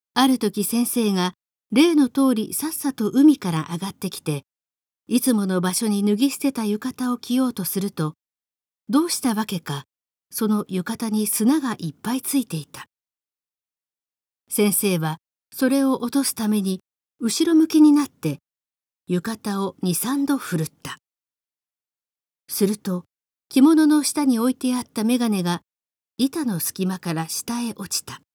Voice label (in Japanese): normal, 標準; girl